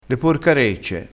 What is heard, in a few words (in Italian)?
"porcarecce", crespinese